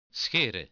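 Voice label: "scheren", Dutch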